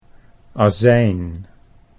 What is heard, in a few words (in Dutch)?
"azijn", Dutch